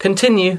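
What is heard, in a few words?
"pokracujte", Czech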